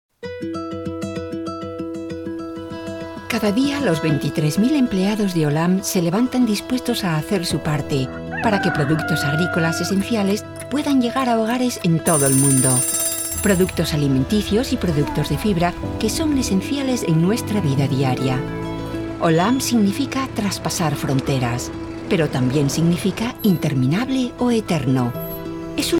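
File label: Spanish European General; GoLocalise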